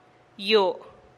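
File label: "yoo", Teotitlán del Valle Zapotec; sí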